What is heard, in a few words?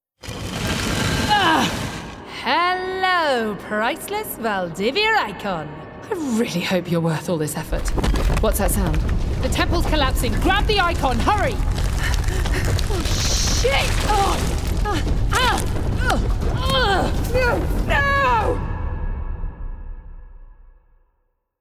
Gaming Action Character